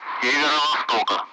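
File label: Speech Sound Russian Common Allied Flight; DCS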